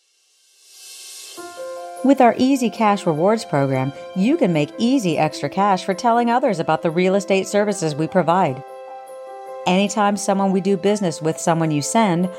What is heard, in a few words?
blurb female